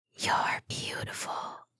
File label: asmr girl youre beautiful; ringtone; asmr ringtones